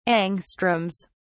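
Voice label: "angstroms", British English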